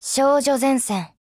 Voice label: TITLECALL, JP; SCARH

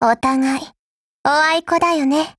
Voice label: FORMATION, JP; UMP45Mod

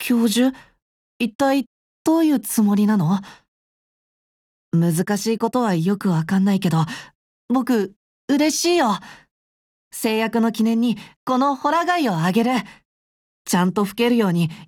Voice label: OATH, JP; yanny

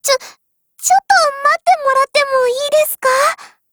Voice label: LOADING, JP; PPsh41Mod

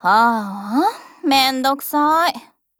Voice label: DIALOGUE, JP; M2HB

